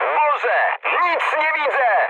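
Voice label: searchlite, mood high, Polish speech; War Thunder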